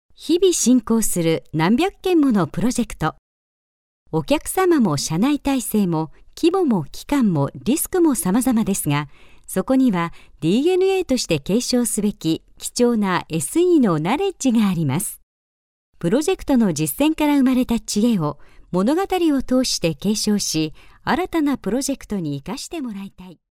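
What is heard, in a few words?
Japanese, female, elearning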